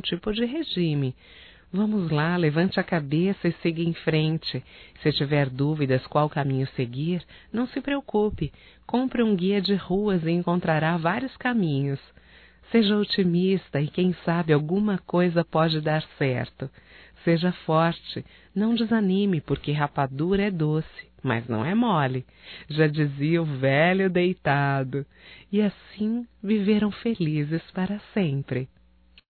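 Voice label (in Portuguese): Gozacao otimismo amigo female, Só Voz; Telemensagens; AMIZADE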